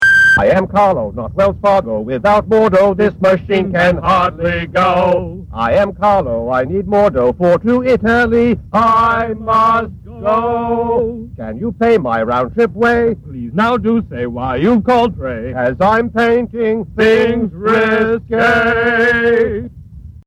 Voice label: Phone Msg